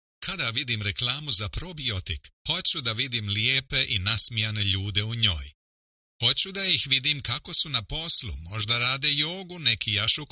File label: bosnian voice